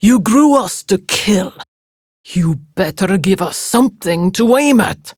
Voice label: Patrol Prime Grineer Female; Tau; Battle Barks